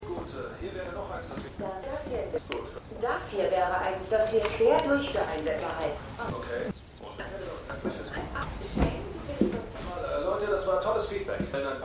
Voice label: Designer Frau Videokonferenz; Succession

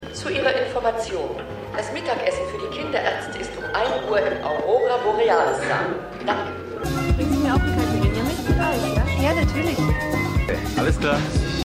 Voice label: Durchsage 2 Frauen HG Barmann Alles Klar; AusgerechnetAlaska

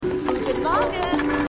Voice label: Frau, Stimmproben

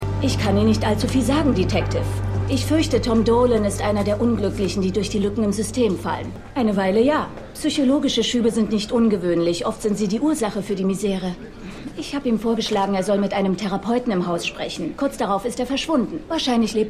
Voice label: PsychologischeBeraterin; Falling Water

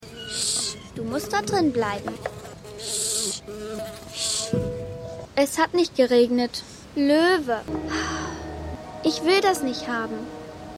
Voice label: Maedchen; Shining Girls

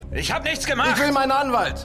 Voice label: Strassenraeuber Autodieb, Stimmproben; The Flash

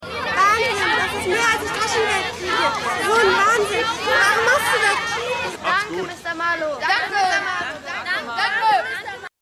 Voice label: Maedchen Menge; The Wire

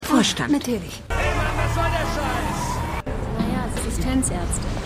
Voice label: Assistentin Autofahrer Frau; AtlantaMedical

